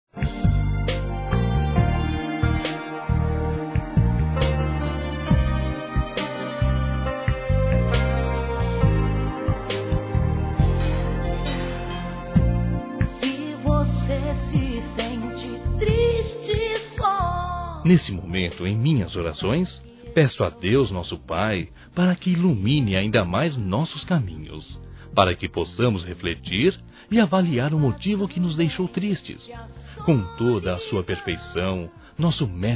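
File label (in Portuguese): IRMÃ EM CRISTO masculino, Telemensagens; Desculpas; Telemensagens 2017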